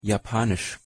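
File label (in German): "japanisch", German; pronunciation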